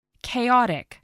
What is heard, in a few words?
"chaotic", English, voice